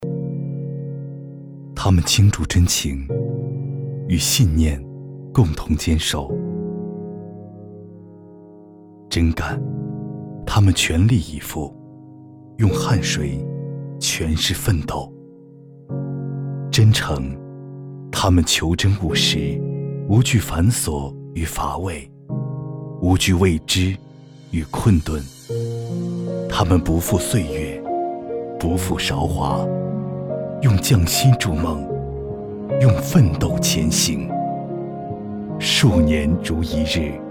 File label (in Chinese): 男 公益广告 倾注真情 真诚低沉